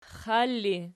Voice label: xal erg, archi

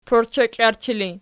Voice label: "porcheklarchi", Archi

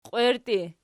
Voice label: "qwierti", Archi

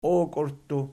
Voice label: "okiurttu", Archi

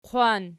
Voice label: "qwan", Archi

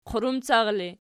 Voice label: "qurumcar", Archi

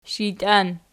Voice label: "shijtian", Archi

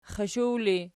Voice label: xashaw erg, archi

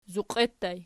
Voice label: "zuqie", Archi

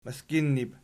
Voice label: "mizginnib", Archi

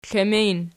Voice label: "klemin", Archi

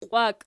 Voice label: "qiwaki", Archi

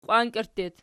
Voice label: "qiwankerttu", Archi

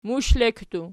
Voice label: "mushlekki", Archi

